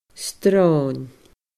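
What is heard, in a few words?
"sron", Scottish Gaelic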